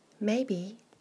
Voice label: "may be", English